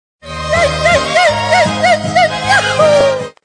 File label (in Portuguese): vinheta yahoo; salvio max producao